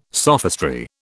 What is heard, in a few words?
"sophistry", British